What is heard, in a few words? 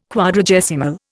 "Quadragesimal", Indian